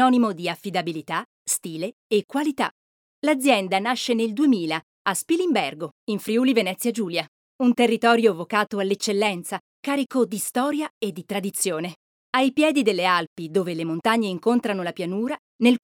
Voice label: italian corporate videos